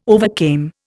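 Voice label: "overcame", Indian English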